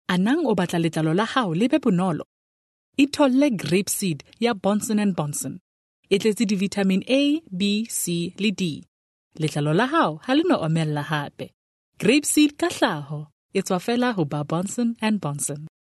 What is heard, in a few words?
Sesotho Soft Sell